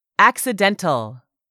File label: "accidental", English; 3000 words